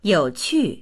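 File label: "you3qu4", Mandarin Chinese; 有趣; 面白い、興味深い; dictionary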